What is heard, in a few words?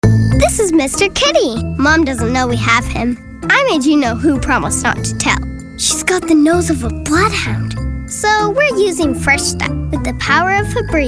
Commercial